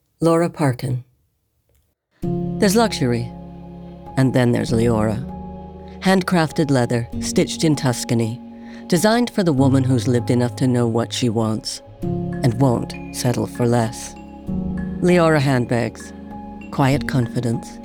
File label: Commercial